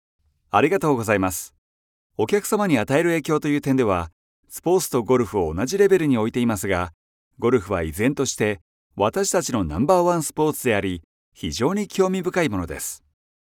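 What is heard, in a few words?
Japanese, male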